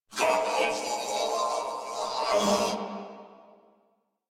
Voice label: Death Crewmen, Battle Barks; Dialog; Warframe; Corpus Crewmen; Crewman C

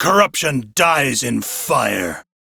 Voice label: Crew Gun Kill Fighter, Male, en; Warframe; Railjack Crew; Arbiters Hexis

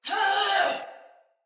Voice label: Attack Heavy, Soldier Female; Battle; Barks; Dialog; Sinecure Soldier Female A

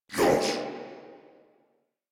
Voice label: Surprised, Battle Barks

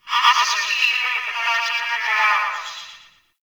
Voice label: Campsite Announcement; Warframe; Tau

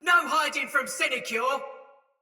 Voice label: Sight Lost Shout Soldier Mace Agent Female

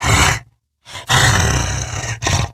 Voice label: Idle Grineer Wolf, en; Battle Barks

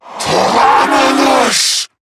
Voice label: Attack, en; Zariman Wraith

